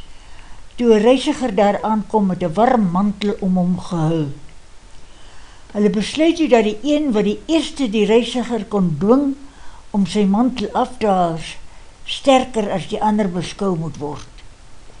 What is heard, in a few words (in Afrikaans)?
Noordewind ou spreker